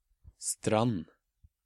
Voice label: "Strand", Norwegian